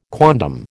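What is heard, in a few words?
"quondam", American English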